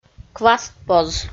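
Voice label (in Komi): "квастпоз", Komi, female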